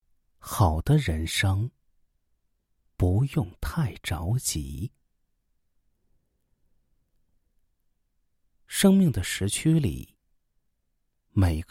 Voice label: voice, shure; Shure SM4 大振膜电容麦克风上手体验